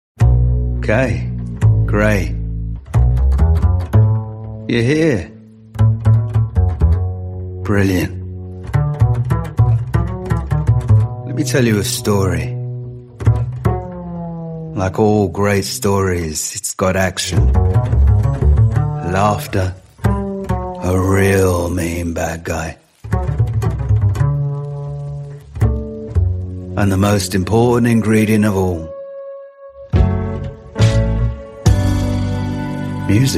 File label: english british video games